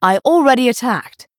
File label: Female Night Elf ERROR I ATTACKED